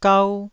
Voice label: "gau1", Taiwanese syllable